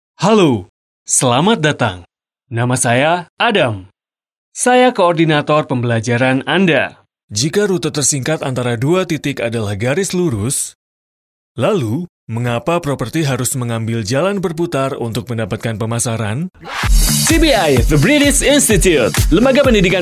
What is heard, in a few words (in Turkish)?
Endonezce Erkek; seslendirme